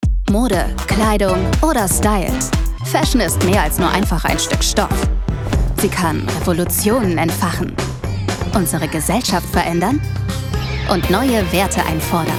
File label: tvc; share; Muttersprache Deutsch